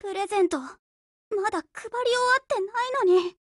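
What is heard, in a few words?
DEAD Japanese, Voice; GF Alarm; Destroyer Christmas buhuo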